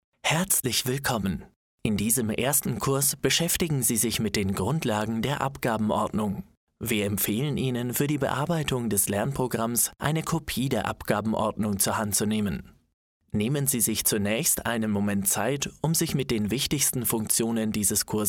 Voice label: E-Learning; APTARA